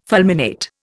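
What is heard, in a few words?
"fulminate", Indian English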